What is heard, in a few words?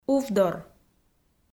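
"увдор", Komi, female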